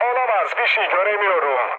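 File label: Turkish searchlight, mood low, speech; War Thunder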